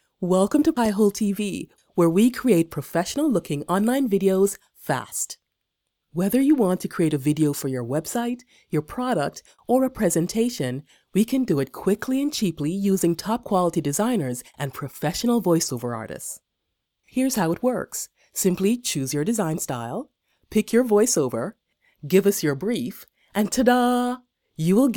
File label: tv profile intro; Piehole